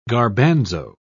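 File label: "garbanzo", British English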